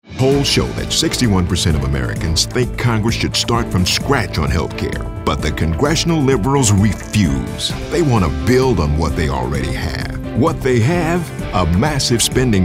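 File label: political, voice-over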